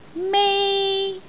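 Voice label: "mei1", Cantonese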